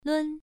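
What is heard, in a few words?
"lun", tone 1, pronunciation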